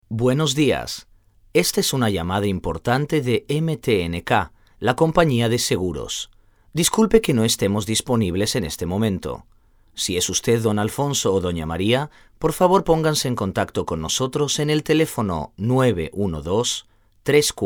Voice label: Natural; קריינות